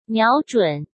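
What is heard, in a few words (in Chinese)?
"瞄准", Mandarin; chinese-flashcards; hsk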